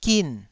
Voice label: "gin4", Taiwanese syllable